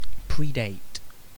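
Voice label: "predate", British English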